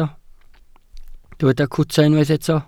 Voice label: "cwta", Welsh

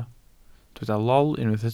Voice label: "lol", Welsh

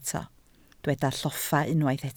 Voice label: "lloffa", Welsh

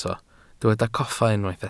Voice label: "coffa", Welsh

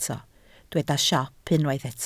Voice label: "siop", Welsh

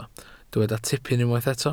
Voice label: "tipyn", Welsh

